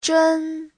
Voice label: "zhen1", chinese